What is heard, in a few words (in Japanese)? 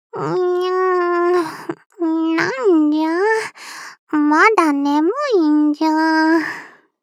起動, システムボイス; くずは